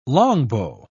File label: "longbow", pronounce